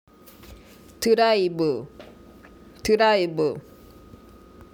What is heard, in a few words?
"drive", Korean